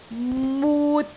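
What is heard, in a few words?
"mut3", Cantonese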